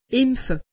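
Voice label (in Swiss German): "impfe", Alsatian; medecine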